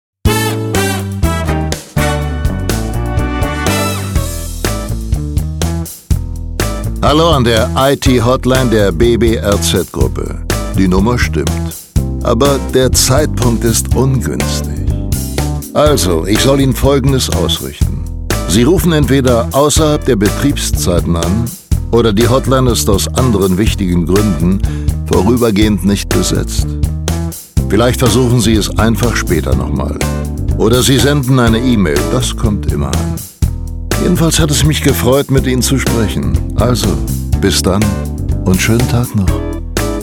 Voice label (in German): Telefonschleife; BBRZ